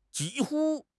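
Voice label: "ji1 hu1", Chinese; HSK; HSK3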